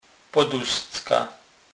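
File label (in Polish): "poduscka", Kurpie dialect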